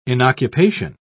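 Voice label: "inoccupation", British English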